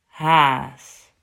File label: "Haas", Dutch